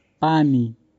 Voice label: "pani", np; grade1; tastes